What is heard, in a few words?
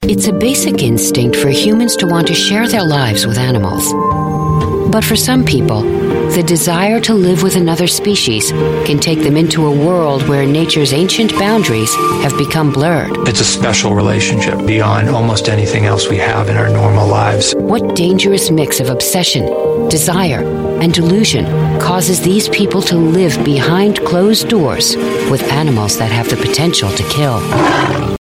US English Narration Broadcast; Animal Planet